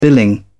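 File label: "billing", English, uk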